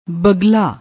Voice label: "bagla", Punjabi; ਬਗਲਾ